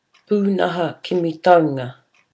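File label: "punaha kimi taunga", Māori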